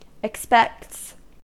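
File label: "expects", American English; pronunciations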